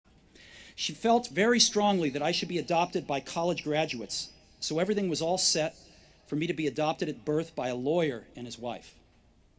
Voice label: Commencement Address; Steve Jobs; 2005; Stanford